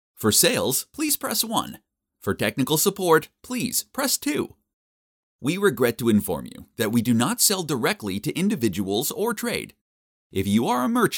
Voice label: IVR; AdvantageIVR